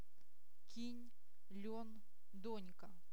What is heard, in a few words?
soft sign uk